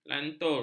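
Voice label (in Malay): "lantur", Malay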